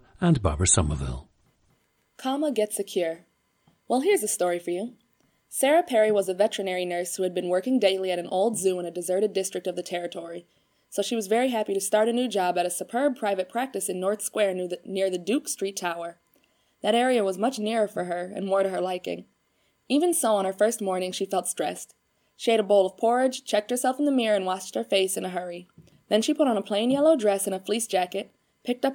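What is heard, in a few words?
michigan, International Dialects of English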